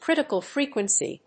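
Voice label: "critical frequency", English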